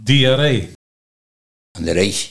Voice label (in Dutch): "Diarree - An de rees", Nieuw lekkerlands dialect